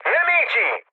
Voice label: enemy detected, mood med, speech it; War Thunder

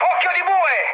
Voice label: bomb success, mood high, speech it; War Thunder

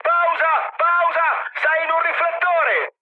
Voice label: searchlite, mood high, speech it; War Thunder

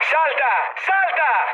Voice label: bailout, mood med, speech it; War Thunder

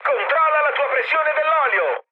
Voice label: damaged oil, mood high, speech it; War Thunder

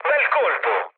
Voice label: bomb success, mood low, speech it; War Thunder

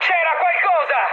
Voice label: trick, mood high, speech it; War Thunder

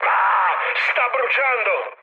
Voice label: on fire, mood low, speech it; War Thunder

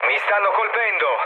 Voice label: aircraft damaged, mood low, speech it

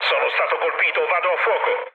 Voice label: on fire, mood low, speech it; War Thunder

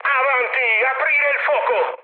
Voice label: attack position, mood high, speech it

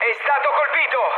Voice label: aircraft destroyed, mood med, speech it; War Thunder

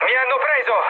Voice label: aircraft destroyed, mood med, speech it

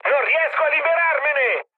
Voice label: aircraft damaged, mood high, speech it

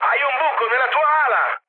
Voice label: right wing, mood med, speech it; War Thunder

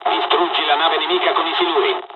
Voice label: torpedo attack, dialogs italian; War Thunder

